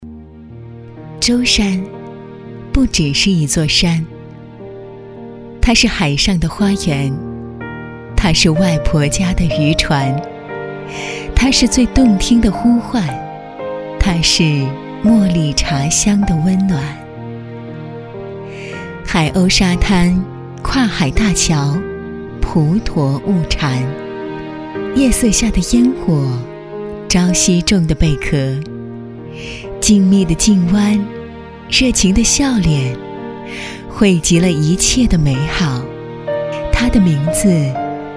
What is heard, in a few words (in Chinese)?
走心讲述; 舟山